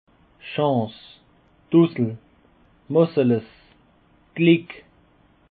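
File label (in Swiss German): "chance", Alsatian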